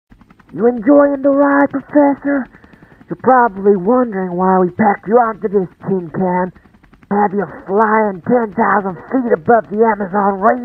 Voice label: United Nations Radio Call